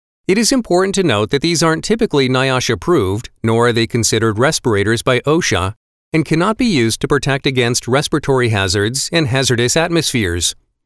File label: Safety Training